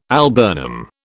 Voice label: "alburnum", British

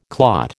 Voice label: "clot", American

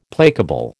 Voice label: "placable", American

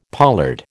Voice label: "pollard", American

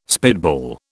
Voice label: "spitball", British

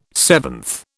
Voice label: "seventh", British

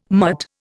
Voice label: "mutt", Indian

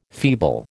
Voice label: "feeble", American

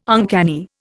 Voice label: "uncanny", Indian

